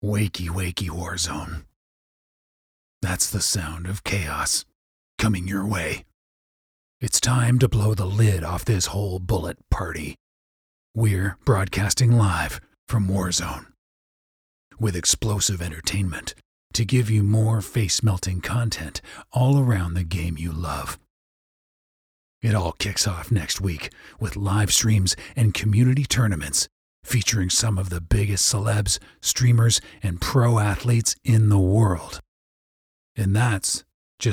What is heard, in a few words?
english canadian movie trailers